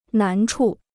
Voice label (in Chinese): "难处", Chinese